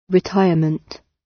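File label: "retirement", British; Business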